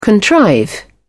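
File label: "contrive", British English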